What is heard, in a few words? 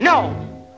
"no", angry; Voice; expletives